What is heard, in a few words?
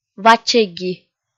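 "вачеги", Komi, female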